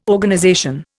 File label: "Organization", Indian